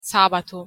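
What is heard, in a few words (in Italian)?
"sabato", Italian pronunciation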